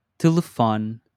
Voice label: "telefone", portuguese

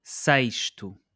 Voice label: "sexto", portuguese

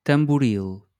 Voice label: "tamboril", Portuguese

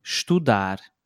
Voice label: "estudar", Portuguese

